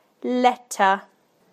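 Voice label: "letter", British English; PictureDictionary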